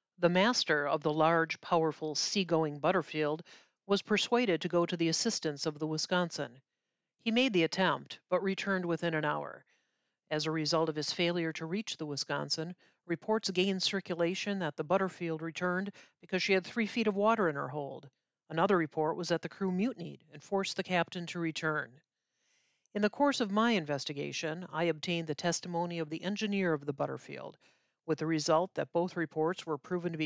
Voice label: narration; Shipwrecks